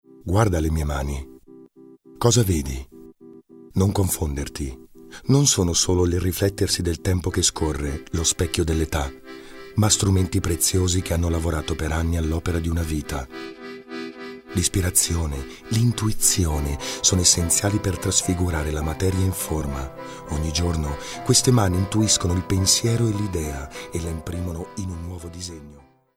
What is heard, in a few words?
male italian comercial; ideasonora